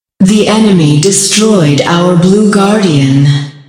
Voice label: female ally blue guardian destroyed, announcer female; patron